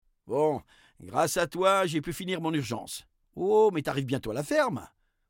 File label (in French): narrateur; La-Fabrik; route vers ferme